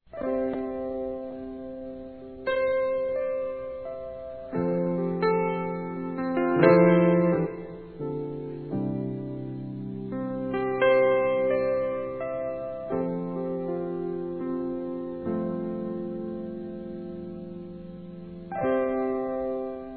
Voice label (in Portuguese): perdoa, Desculpas, masc; Telemensagens 2017